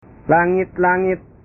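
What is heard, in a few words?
"langit langit", Indonesian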